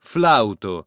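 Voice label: "flauto" Italian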